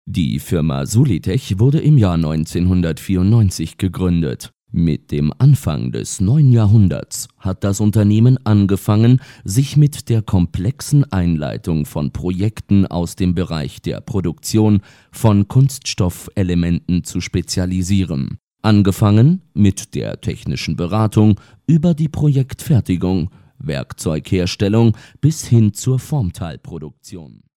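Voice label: German, male, corporate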